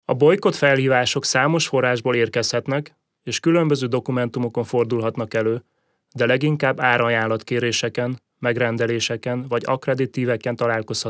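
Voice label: Hungarian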